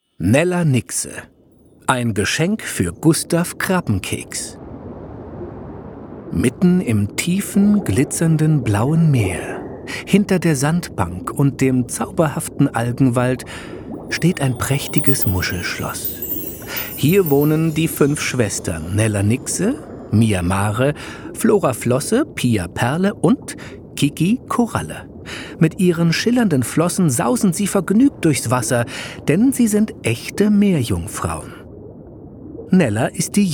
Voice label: Hoerbuch Kinder; Nella Nixe

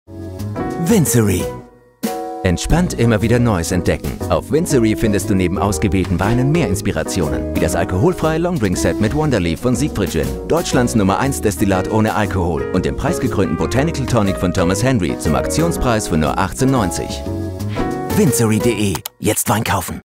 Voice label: Werbung; vinzery

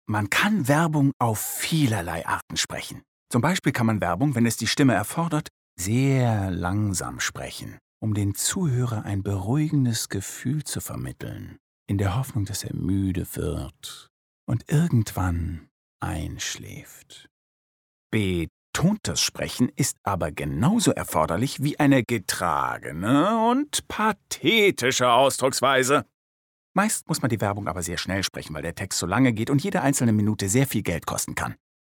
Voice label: erzaehlung

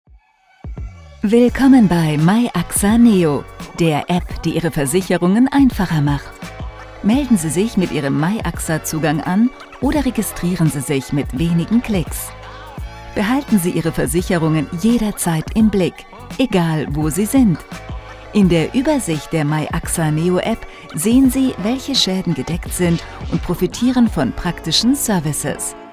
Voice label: Erklaerfilm

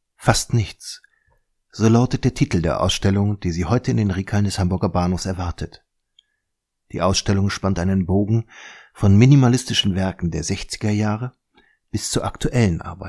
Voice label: audioguide

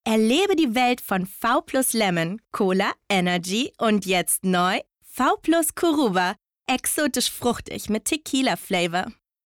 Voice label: Werbung Bier

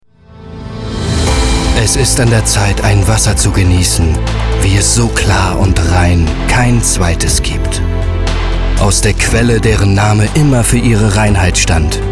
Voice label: Werbung Getraenk

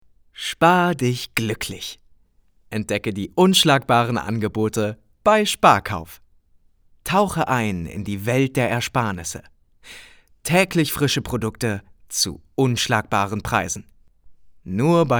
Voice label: Werbung, German; Sparkauf

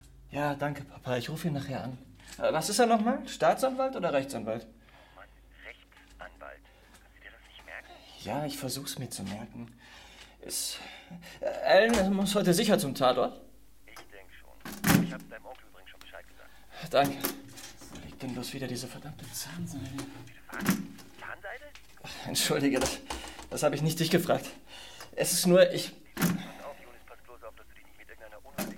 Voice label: Hoerspiel; Freinacht

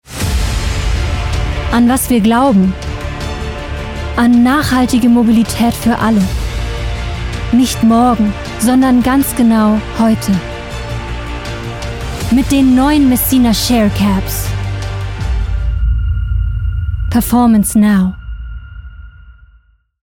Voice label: Sprecherdemo, Demo Werbung Auto, German; Commercial (Werbung); LOFT VOICES

